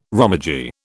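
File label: "romaji", British English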